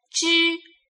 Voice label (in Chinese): "只", Chinese